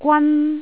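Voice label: "gwan1", Cantonese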